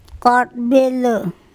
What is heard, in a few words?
"kOTbelo" a water snake big in size, andamanese